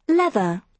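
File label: "leather", British English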